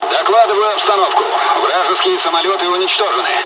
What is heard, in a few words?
dialogs russian; War Thunder